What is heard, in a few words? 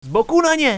flank them, radio